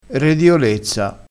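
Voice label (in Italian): "rediolezza", vocabolario dialettale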